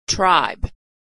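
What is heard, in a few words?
"tribe", American English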